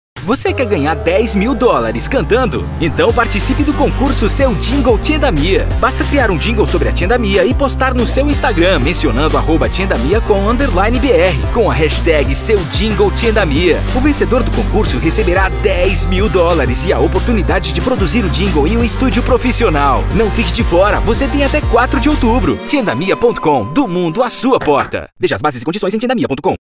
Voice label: Young Adult; Tiendamia Web Videos